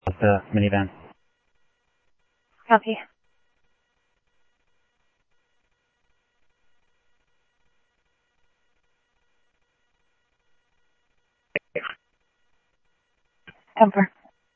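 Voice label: Mesa Police Department, scanner audio; Mesa Simulcast; MESA PD Superstn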